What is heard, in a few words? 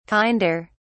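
"kinder", British English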